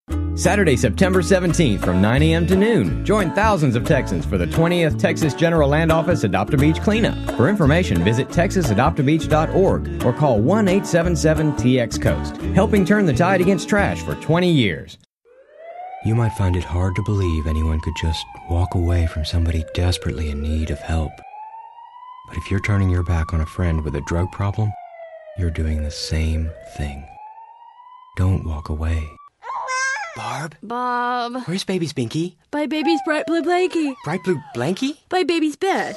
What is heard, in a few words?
commercial